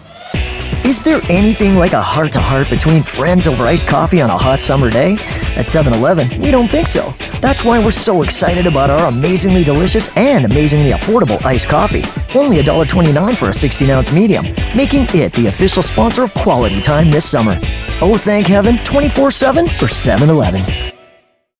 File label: girl talk